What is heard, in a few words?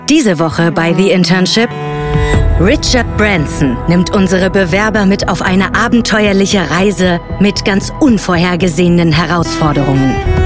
TV Trailer